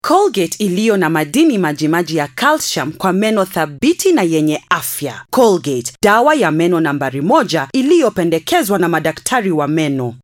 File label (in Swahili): Swahili Radio Commercial; Colgate Swahili